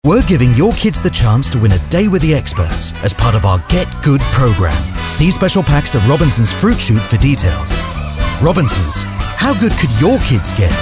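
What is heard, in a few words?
commercials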